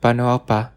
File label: goodbye, bonggi